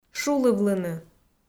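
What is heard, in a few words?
"шулывлыны", Komi, female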